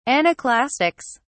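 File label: "anaclastics", British English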